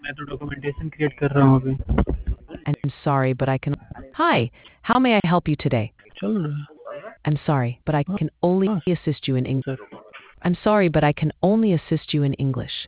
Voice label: conversation